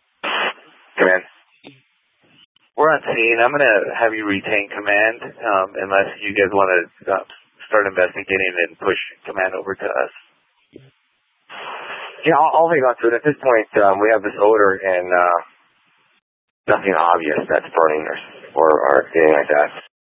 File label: Fire Dpts VHF 154.800 Patch, scanner; TOPAZ PD (TRWC); Thompson Peak